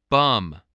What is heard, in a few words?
"bum", spelling